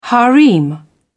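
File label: "harem", British English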